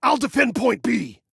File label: DEFEND, Male